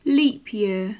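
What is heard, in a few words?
leap year, British English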